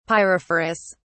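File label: "pyrophorus", British English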